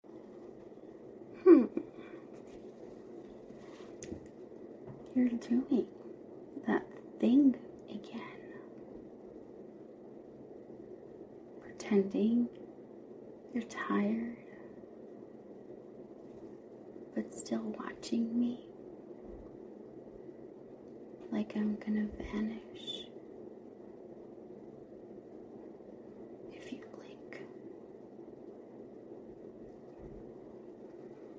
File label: F4M Whisper; [GFE] [Slow Burn]; [Voice Kink]; Pillow Talk